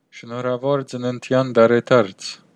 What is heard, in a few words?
birthday, armenian western